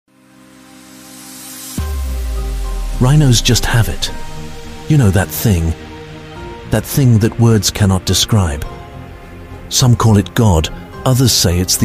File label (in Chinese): 英式男声 深情风格